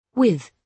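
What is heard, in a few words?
"with", British English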